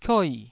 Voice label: "kioi4", taiwanese syllables

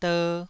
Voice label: "der2", Taiwanese syllable

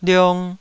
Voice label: "liong2", Taiwanese

